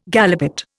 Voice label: "galipot", Indian